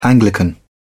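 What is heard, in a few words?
"anglican", British English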